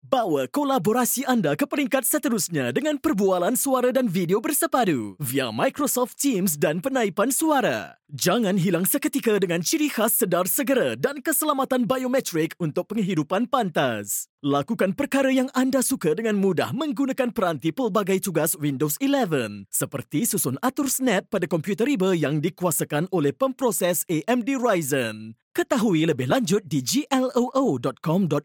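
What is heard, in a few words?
Malay, commercial; GoLocalise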